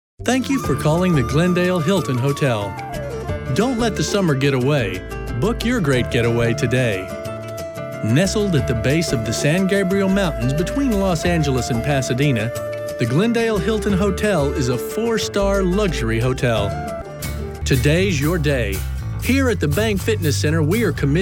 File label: male